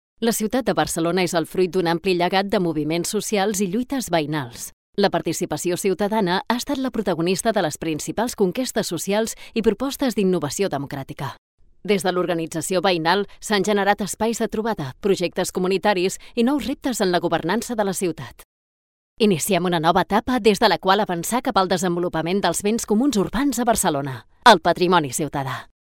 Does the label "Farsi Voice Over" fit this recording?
No